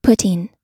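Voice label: "putting", US English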